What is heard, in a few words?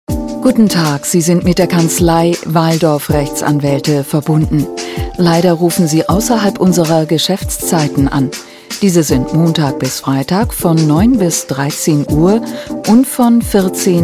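deutsch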